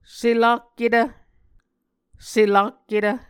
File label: "selakketv", Muscogee; Mvskoke Words with Repeated Consonants; Martin, Jack B., and Margaret McKane Mauldin. 2023. A Dictionary of Creek/Muskogee